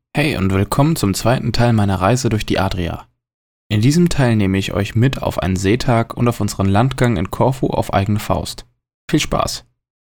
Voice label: male German